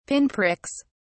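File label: "pinpricks", British English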